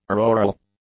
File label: "auroral", English; adjectives